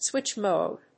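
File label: "switch mode", English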